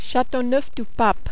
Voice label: "Chateauneuf du pape", French